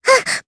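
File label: Vox Jump, Japanese; May; kingsraid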